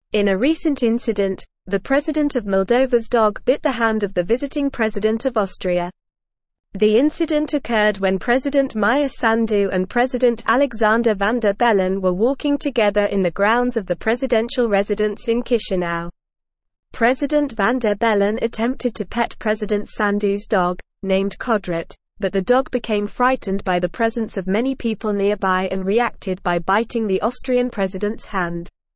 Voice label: English Newsroom Advanced NORMAL Reading; Moldovan Presidents Dog Bites Austrias President Van der Be